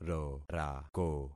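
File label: "rorako", concatenated syllables; Affixing experiment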